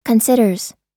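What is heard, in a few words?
"considers", US English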